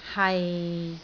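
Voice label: "hai6", Cantonese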